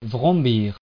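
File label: "vrombir", French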